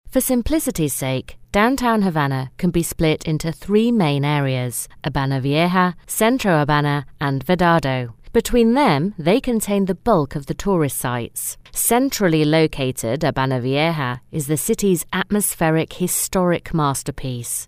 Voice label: documentary

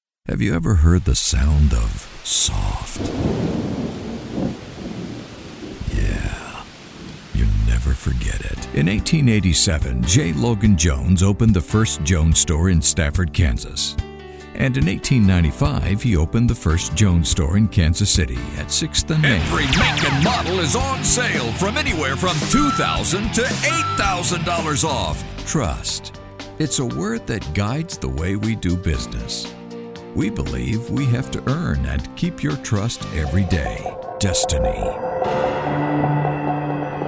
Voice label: american english show

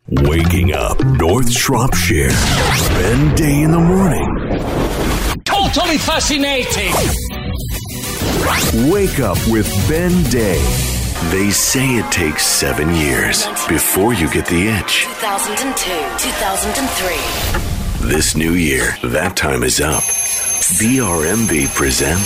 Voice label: american, tv and radio

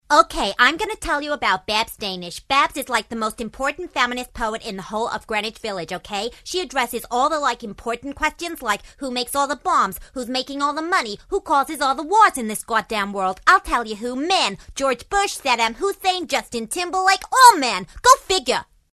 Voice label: new yorker

